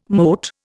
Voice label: "mote", Indian